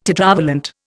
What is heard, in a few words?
"tetravalent", Indian